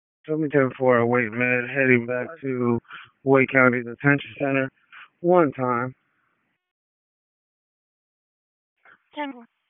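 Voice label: Dispatch 1, P25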